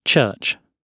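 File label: "church", British English; Oxford Audio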